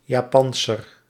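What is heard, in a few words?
"Japanser", Dutch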